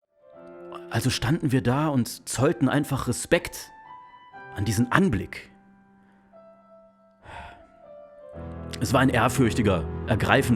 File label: Drama